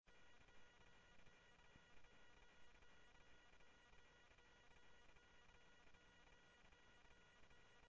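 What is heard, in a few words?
Sheriff East County, scanner audio trunk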